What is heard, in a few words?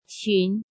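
"Xún", Chinese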